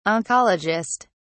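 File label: "oncologist", English, British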